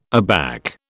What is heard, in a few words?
"aback", British